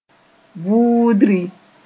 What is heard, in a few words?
"vuudri", moore; dragged